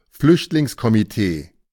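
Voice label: "Flüchtlingskomitee", German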